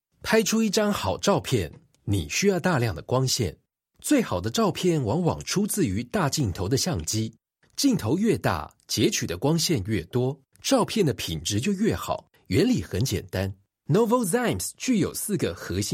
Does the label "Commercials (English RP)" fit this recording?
No